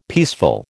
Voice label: "peaceful", American